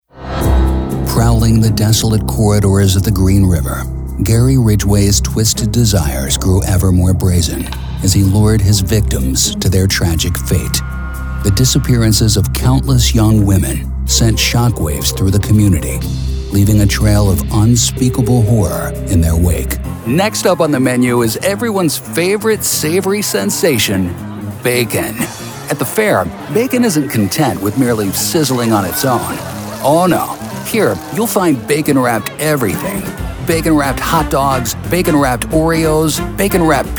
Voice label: Narration